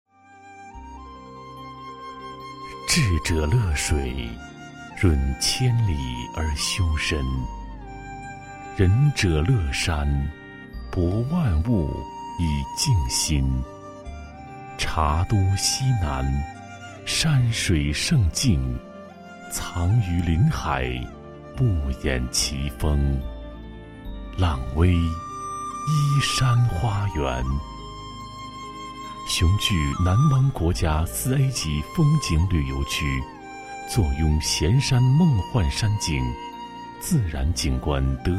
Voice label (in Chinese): 男 专题 花园 房地产 缓慢优雅, 国语男声配音